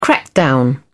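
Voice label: "crackdown", British English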